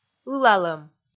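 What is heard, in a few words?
"ылалӧм", Komi, female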